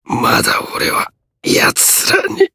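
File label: Voice Japanese; hardy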